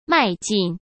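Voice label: "迈进", Mandarin Chinese; chinese-flashcards; hsk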